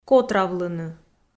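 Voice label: "котравлыны", Komi, female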